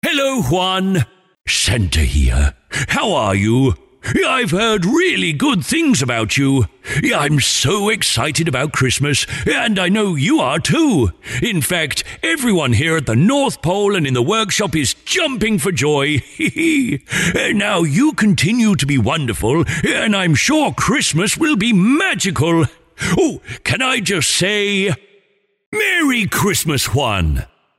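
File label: Personalised Santa Message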